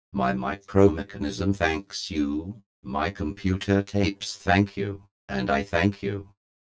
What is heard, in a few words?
robot test